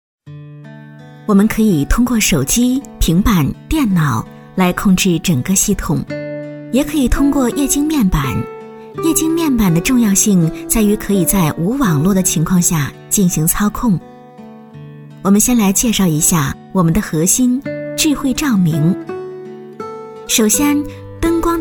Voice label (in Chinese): 女 照明 温柔介绍; 爱珂照明; 国语女声配音